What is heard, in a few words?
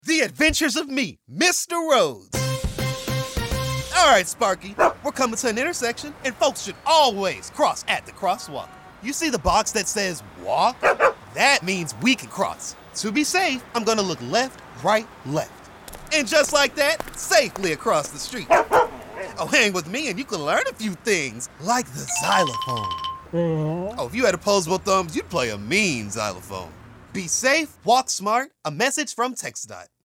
pedestrian radio; pedestrian safety; traffic safety campaigns